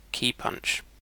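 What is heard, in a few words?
"keypunch", British English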